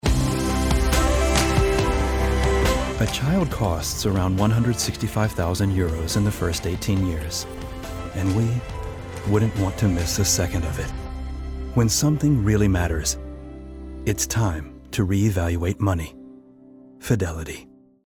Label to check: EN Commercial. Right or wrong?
Right